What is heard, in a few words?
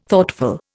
"Thoughtful", Indian